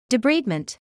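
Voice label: "debridement" English, British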